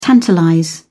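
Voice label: "tantalize", British English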